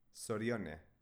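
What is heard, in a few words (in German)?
"zorione", baskische Mädchennamen, Aussprache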